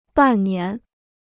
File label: "半年", Chinese